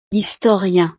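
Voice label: "historien", French; profesiones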